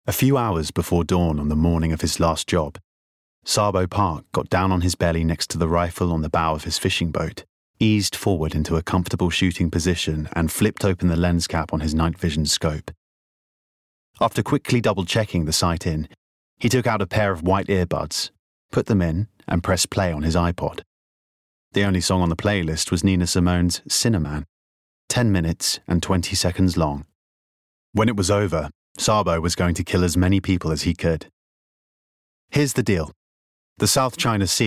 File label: audiobook